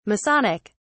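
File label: "masonic", British English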